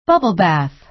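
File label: "bubble bath", American English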